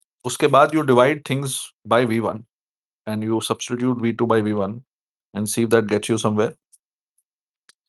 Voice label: voice message